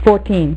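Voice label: "fourteen", female; MacCribbage; InterStellar MacCribbage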